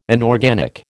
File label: "inorganic", American